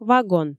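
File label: "вагон", Komi, female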